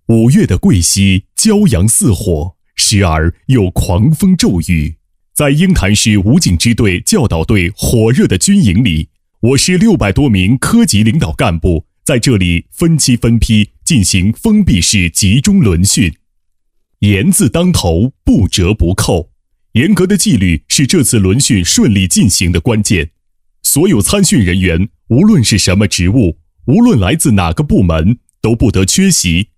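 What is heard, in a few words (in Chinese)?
国语 男声 专题（大气 讲述） 大气浑厚磁性 沉稳; 全市科级干部轮训纪实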